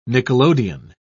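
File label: "nickelodeon", British English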